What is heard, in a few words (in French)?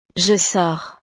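"je sors", French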